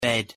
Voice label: "bed", English; the home

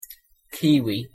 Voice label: pronunciation en kiwi; fruit and vegetables

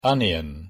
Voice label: "onion", English

